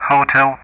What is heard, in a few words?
"Hotel", ENG; Ka-50; DCS